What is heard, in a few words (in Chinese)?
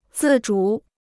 "自主", Chinese